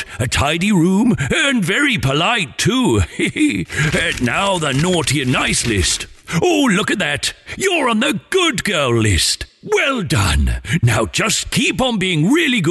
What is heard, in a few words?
Personalised Santa Message, personalised Christmas message